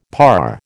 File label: "parr", American